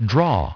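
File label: "draw", English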